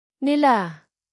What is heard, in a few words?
"nila", tagalog; tagalog pronouns